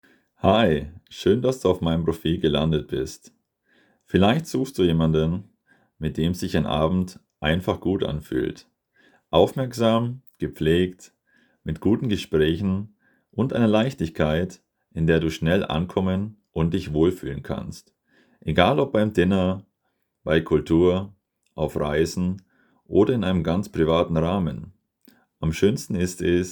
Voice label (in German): Escort Mann Stimmenintro Deutsch; male-escort